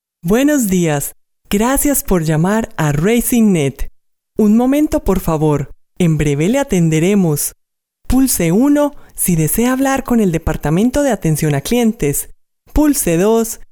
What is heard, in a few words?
IVR